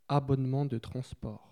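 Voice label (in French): "abonnement de transport" French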